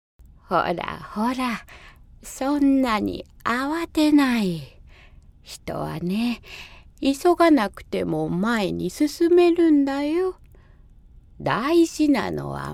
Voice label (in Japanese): おばあちゃん